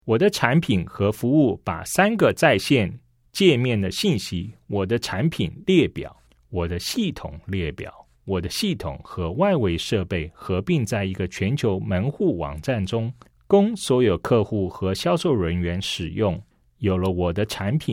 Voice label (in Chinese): CHINESE